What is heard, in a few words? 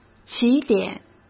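"起点", Mandarin Chinese; chinese flashcards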